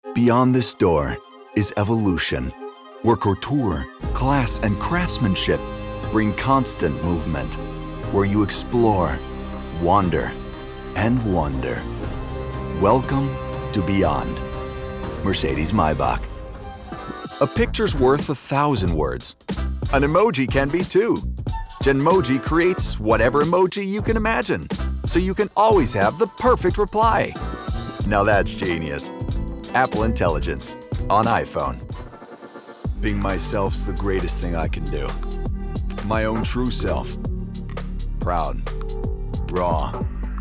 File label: male american and spanish castilian transatlantic accent; commercial demo